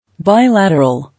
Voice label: "Bilateral", English